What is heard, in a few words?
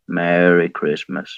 merry christmas, bear; sven; santa bear